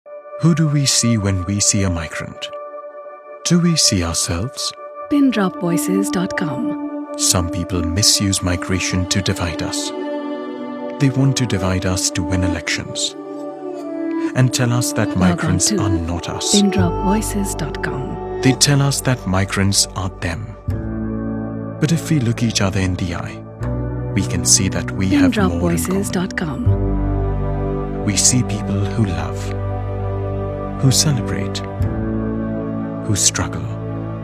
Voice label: English